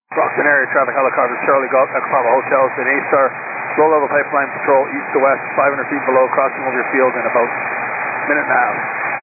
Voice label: 122 725MHz, scanner radio